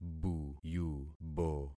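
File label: "buyubo", concatenated syllables; Affixing experiment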